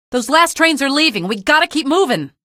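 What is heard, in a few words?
citizen squad flee, female; vo; npc; source engine; episodic; episode 1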